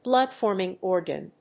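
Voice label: "blood-forming organ", English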